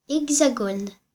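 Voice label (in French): "hexagone", French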